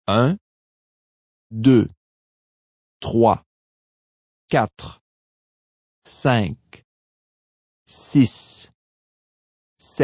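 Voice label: Numbers French 1 to 10, Foreign, 1 girl, 10 yrs, counting French - 1-10; Talking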